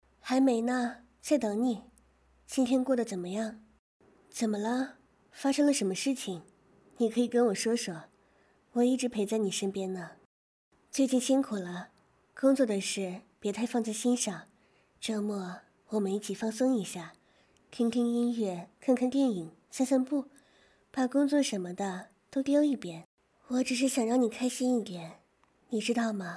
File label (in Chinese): 试音 女友